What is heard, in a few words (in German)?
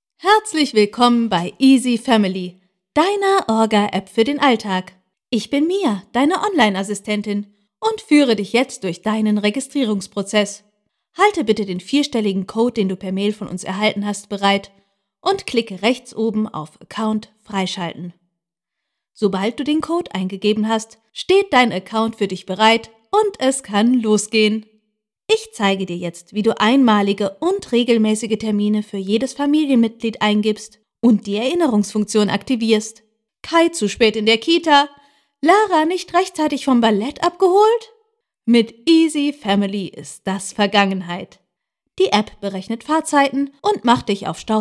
Erklärfilm; Orga-App